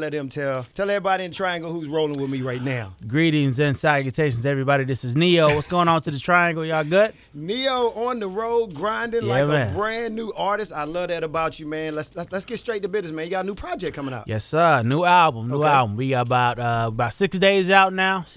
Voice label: radio